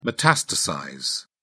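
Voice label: "metastasize", English, British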